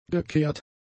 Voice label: "gekehrt", German; adjectives